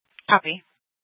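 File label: police dispatch radio, scanner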